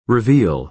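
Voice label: "reveal", American English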